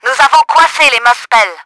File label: ROPES, french; Battle Engine Aquila; XBOX